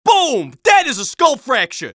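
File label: scout taunt head pain, male voice; taunts; tf2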